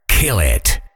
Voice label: "kill it", Voiceover Fighter; Kenney's Sound Pack

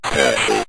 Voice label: "special", synth voice; Kenney's Sound Pack

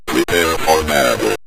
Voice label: prepare for battle, synth voice; Kenney's Sound Pack

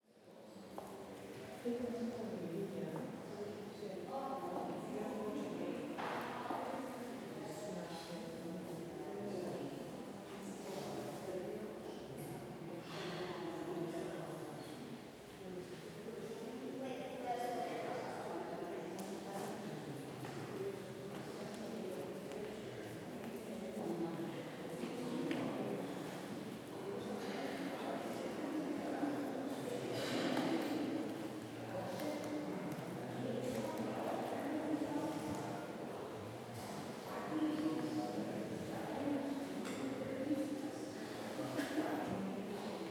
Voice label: SPANISH CROWD Museum 15-20 People Adults Talking; GainWalkers; Spanish Crowd Ambiences

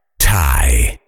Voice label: "tie", Voiceover Pack Fighter; Kenney's Sound Pack

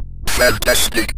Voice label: fantastic, Synth Voice; Kenney's Sound Pack; Synth Voice 1

